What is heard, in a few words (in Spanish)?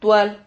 "tual", Silabas